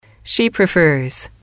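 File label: "she prefers", English, female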